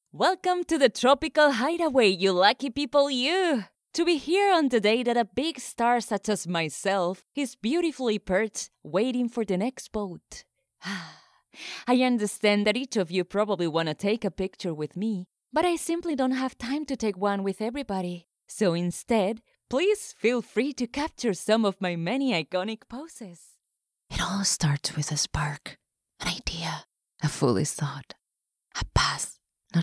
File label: English